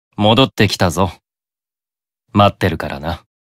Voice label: Job Notification Voice; Beelzebub